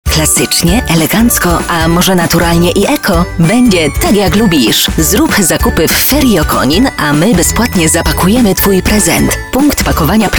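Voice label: Polish, female, commercial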